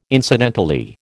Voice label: "incidentally", American